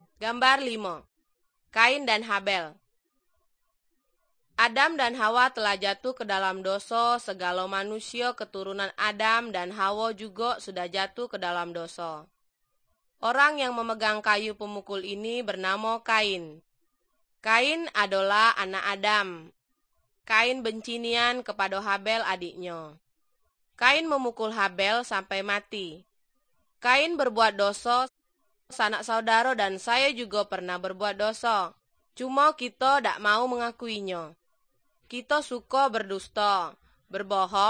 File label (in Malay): good news malay jambi